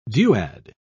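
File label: "duad", British English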